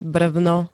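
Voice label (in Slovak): "brvno", Slovak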